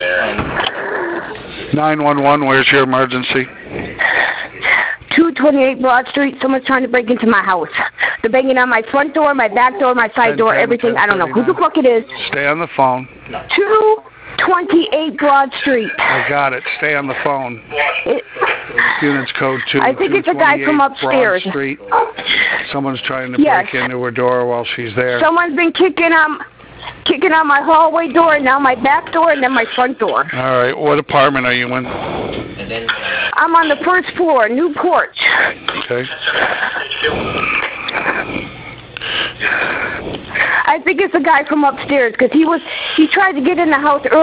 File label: 911 Call; 228 Broad St Norwich